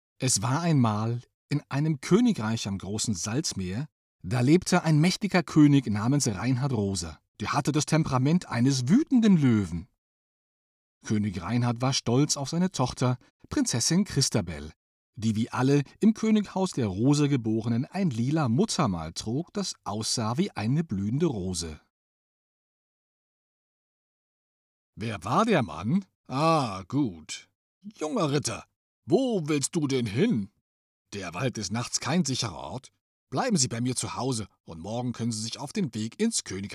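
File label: german male narration; voice demos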